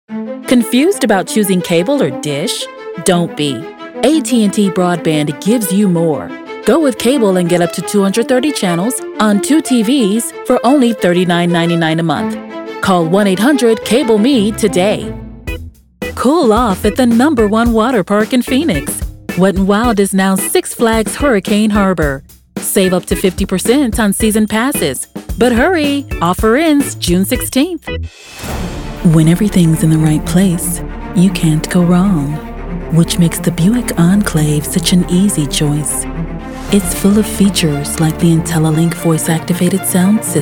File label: Commercial